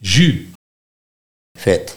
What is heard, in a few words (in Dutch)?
"Vet", dialect Nieuw lekkerlands; jus